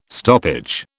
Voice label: "stoppage", British